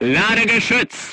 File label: voice message reloading, crew tanks german